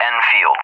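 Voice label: "Enfield", English, USA; CallSign; DCS; Allied Flight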